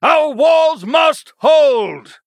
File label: Male Human; Uther